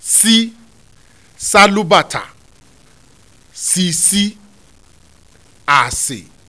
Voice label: "s sss", Yoruba; CONSONANTS; salubata-sisi-ase